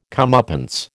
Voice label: "comeuppance", American